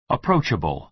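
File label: "approachable", American English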